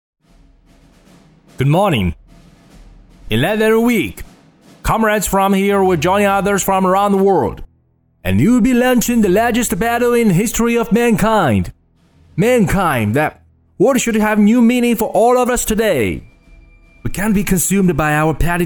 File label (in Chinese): 男 英文演讲宣言